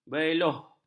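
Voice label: "beloh", Malay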